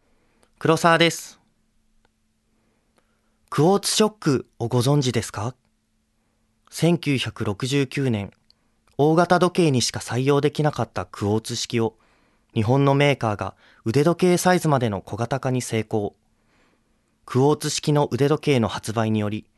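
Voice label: Voice Sample Narration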